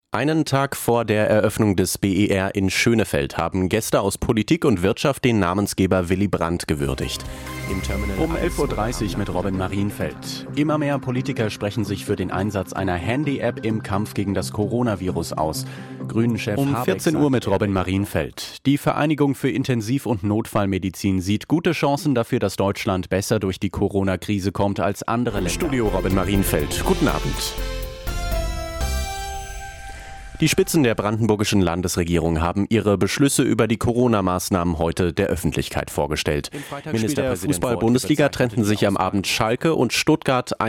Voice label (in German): Nachrichten